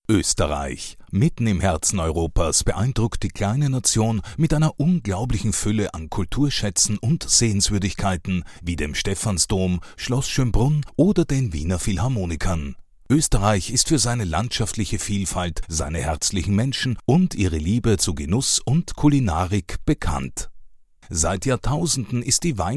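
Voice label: Austrian German, General; voice demos; GoLocalise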